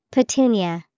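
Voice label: "Petunia", English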